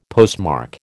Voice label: "postmark", American